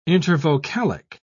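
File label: "intervocalic", British English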